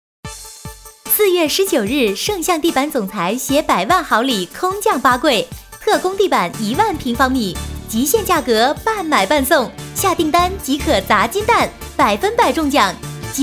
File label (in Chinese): 促销, 国语女声配音; 圣象地板